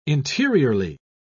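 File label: "interiorly", English, British English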